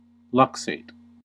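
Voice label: "luxate", US English; pronunciations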